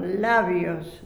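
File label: "labio", Spanish, La Plata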